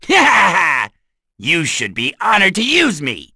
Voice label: vox, en; kingsraid; voices heroes

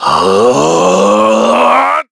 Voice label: Casting, kr; Shakmeh; kingsraid; voices heroes

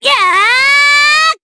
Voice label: Vox Casting jp; Cecilia; kingsraid

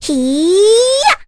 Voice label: Requina Vox Casting, voices heroes en; kingsraid-audio

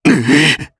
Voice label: Vox Damage jp; voices; heroes; Shakmeh; kings raid

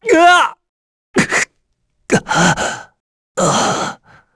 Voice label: Vox Dead, kr; heroes; Esker; kingsraid

